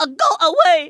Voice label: "go away", Kamikaze; Worms Armageddon